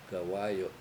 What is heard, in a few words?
"horse", Washo; gawAyu7